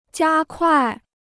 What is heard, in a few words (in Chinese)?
"加快", Chinese